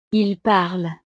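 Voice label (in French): "ils parlent", French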